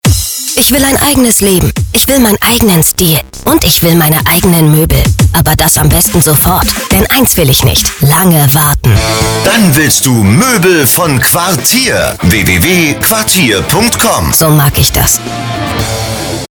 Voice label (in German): Funk Spot; Porta